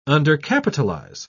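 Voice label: "undercapitalize", British English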